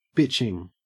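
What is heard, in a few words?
"bitching", Australian English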